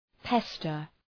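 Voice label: "pester", English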